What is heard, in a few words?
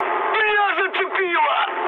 damaged pilot, mood high, speech ru; War Thunder